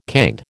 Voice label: "canned", American